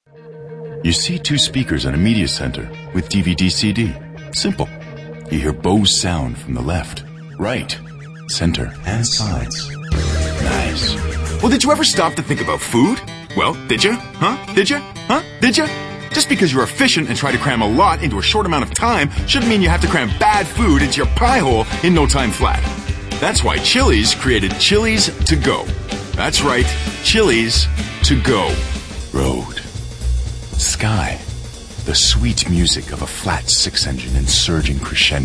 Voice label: male mid 30s mid 40s cool